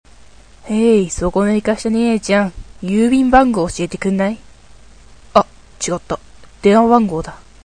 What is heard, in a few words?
boy; アレン・ウォーカー